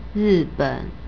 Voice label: "riben", Chinese; Japan = Ri4-ben3